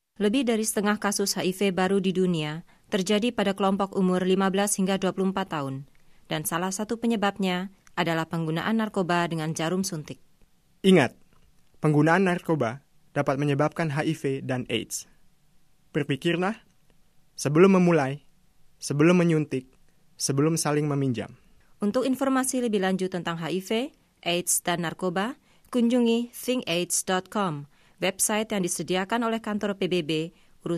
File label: spot indonesian